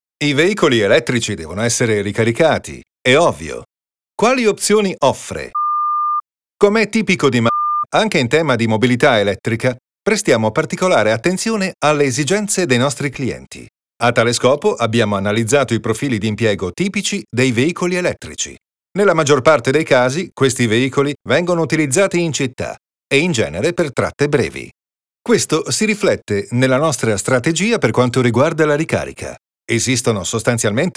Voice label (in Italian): redazionale